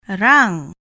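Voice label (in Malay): "rang", Malay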